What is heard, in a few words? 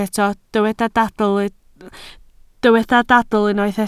"dadl", Welsh